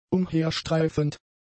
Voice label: "umherstreifend", German; adjectives